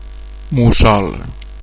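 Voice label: owl, Catalan; animals; birds; tuxpaint-stamps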